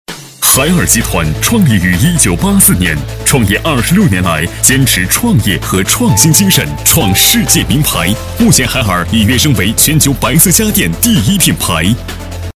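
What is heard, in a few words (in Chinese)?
促销广告配音, 男声配音, 激情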